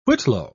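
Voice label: "whitlow", British English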